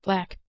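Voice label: "black", English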